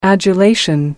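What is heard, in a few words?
"adulation", British English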